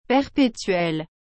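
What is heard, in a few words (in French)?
"perpetuel", French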